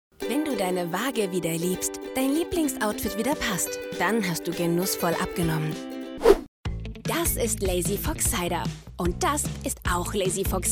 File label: VoiceOver